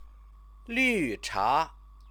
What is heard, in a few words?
"lucha", Chinese